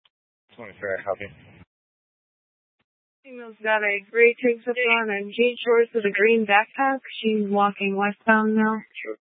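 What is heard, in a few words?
Tempe Police Department, scanner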